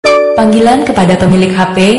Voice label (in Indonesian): panggilan kepada pemilik hp nada dering bikin emosi notification